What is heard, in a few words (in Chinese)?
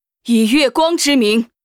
Archer warcry